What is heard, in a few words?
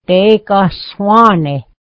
"pie", mohawk